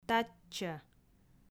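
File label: "татчӧ", Komi, female; audio dict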